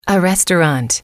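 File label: "a restaurant", English, female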